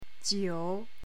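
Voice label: "jiu3", Chinese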